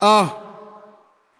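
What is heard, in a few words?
"uh", male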